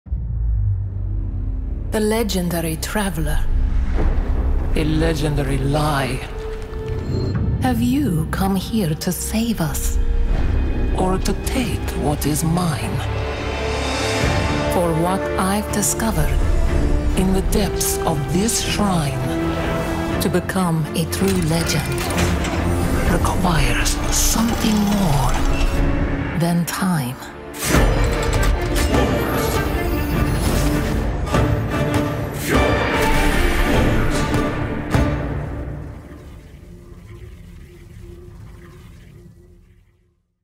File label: game trailer narrator, Female; last epoch eternal legends update 0 8 4 reveal